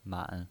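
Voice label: "man", pinyin voice, Mandarin Chinese, third tone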